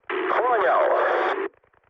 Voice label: chat, speech ru